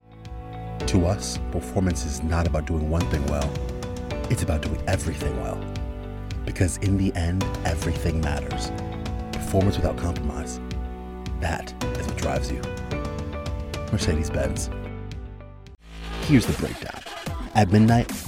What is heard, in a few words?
Commercials